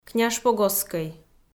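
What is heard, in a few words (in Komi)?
"княжпогостскӧй", Komi, female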